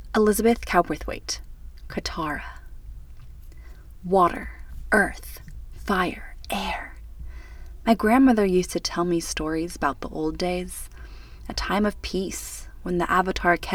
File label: VO Sample, Voiceover; Avatar Opening VO Sample